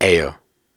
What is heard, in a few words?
Viking Vocal Syllables and Imaginary Words, Hard